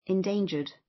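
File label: "endangered", British; Flora and fauna, agriculture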